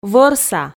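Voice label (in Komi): "вӧрса", Komi-Zyrian, female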